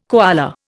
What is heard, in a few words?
"koala", Indian